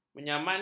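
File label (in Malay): "menyamankan", Malay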